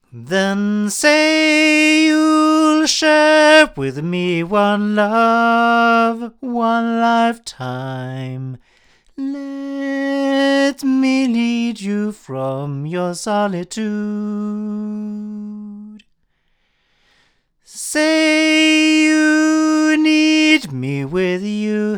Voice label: Oktava MK012 LOMO M3 male